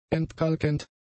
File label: "entkalkend", German; adjectives